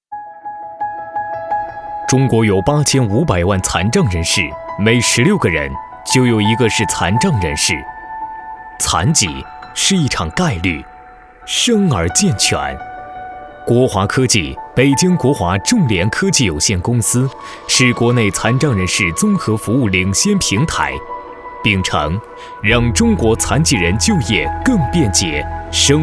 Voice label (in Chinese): 男 解说 专题